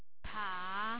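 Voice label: "pa3", Chinese Mandarin, Third Tone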